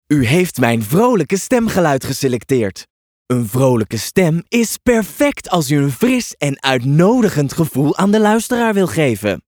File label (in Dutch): Vrolijk